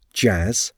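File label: "jazz", English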